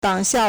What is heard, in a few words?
"dang3 xiao4", Chinese